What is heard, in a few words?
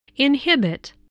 "inhibit", spelling voice